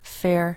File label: "Fir", irish